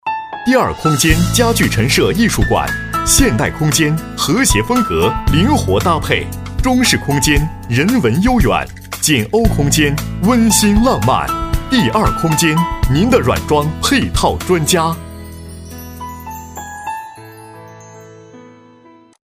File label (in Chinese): 男80 广告 家居馆; 第二空间家居馆; 央视农广